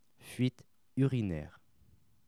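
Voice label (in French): "fuite urinaire", French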